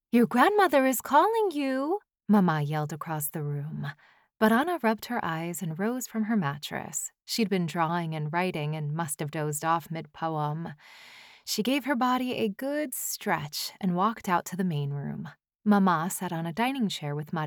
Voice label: Middle Grade 3rd Person Latin American Accent Simple Spanish